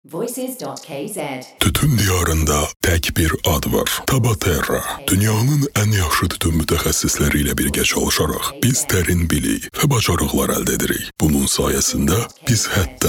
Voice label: Voice aze

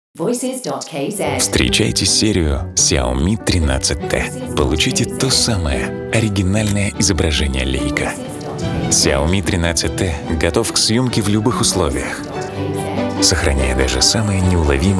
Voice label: rus

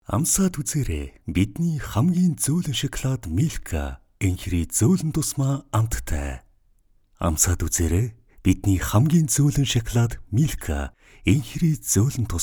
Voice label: 34 years

